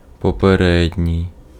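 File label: Ukrainian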